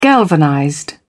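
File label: "galvanized", British English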